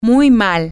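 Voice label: very Bad, Spanish, female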